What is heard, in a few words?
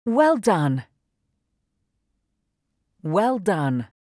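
Well done, English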